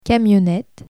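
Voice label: "camionnette", French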